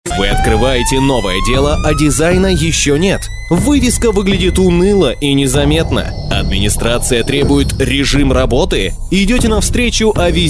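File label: russian male commercial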